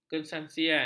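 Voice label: "kesangsian", Malay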